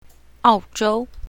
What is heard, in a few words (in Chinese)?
"ao4zhou1", mandarin